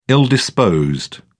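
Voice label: "ill disposed", British English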